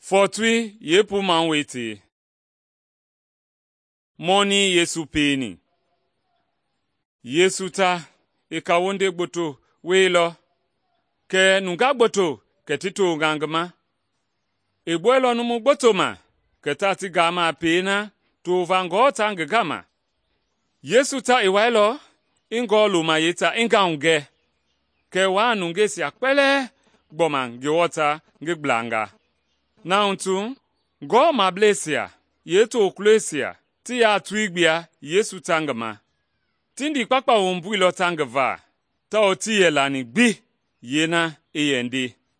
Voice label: mende bsl nt drama; good news mende kpa